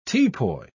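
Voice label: "teapoy", British English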